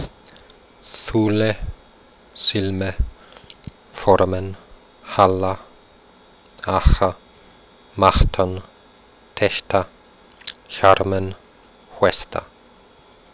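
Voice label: quenya voiceless fricatives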